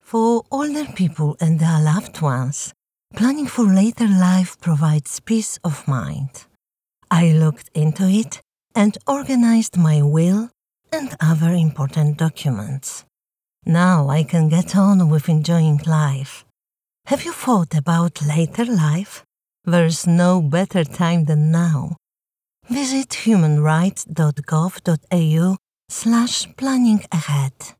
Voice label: English Preparing for later life Radio; AHRC